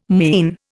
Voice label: "mien", Indian